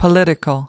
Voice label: "political", English